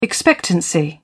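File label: "expectancy", British English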